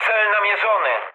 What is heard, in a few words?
near waypoint mood med, speech pol; War Thunder